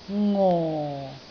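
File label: "ngo4", Cantonese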